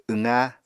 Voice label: "wqa", Apatani

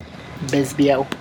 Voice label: "bee", tlacochahuaya

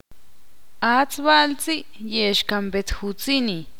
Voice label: ixil; l no corre

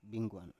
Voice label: "bingguan" Biak

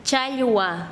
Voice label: Chanka, quechua; pescado

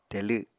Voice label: "telw", apatani

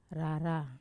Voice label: "rara" belongings, Wamesa

